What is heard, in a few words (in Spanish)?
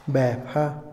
seguir, Otomí, hombre; Movimiento; INALI